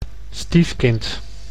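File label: "stiefkind", Dutch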